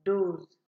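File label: "dose", Nigerian Pidgin, female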